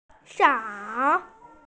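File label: "sao3", Mandarin Chinese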